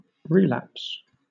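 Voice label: "relapse", British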